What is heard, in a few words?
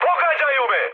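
Serbian aircraft damaged mood high, speech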